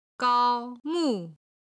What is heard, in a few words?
"gao1 mu4", Chinese